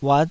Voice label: "uat5", taiwanese